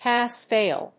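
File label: "Pass-fail", English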